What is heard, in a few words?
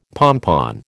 "pompon", American